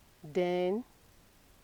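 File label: "den", Nigerian Pidgin, female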